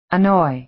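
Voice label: "annoy", American English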